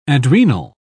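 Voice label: "adrenal", British English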